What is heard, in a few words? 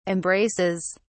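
"embraces", British English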